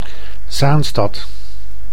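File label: "Zaanstad", Dutch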